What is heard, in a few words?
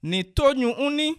i miss you, bassa